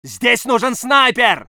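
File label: voice soviet infantry; Red Orchestra 41-45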